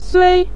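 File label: "sui" neutral tone, Mandarin Chinese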